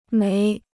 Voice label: "煤", Chinese